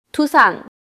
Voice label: neutral, monologue